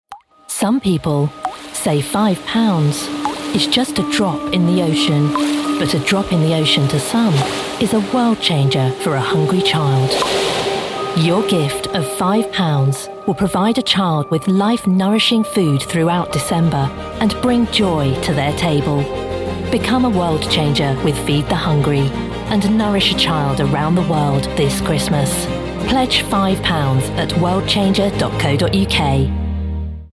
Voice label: radio commercial charity

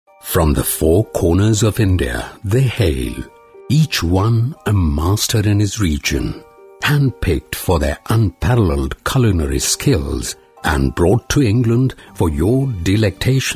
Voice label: indian male english

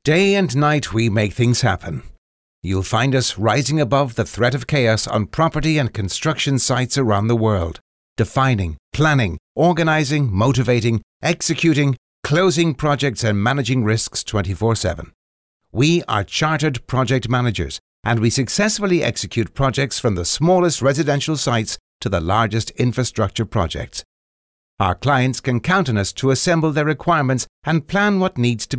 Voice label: irish voice corporate

